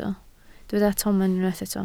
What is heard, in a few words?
"tomen", Welsh